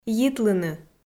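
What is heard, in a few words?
"йитлыны" Komi, female; audio dict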